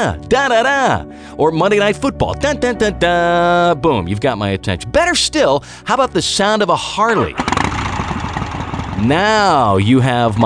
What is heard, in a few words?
Airport Voice Over